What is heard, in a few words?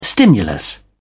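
"stimulus", British English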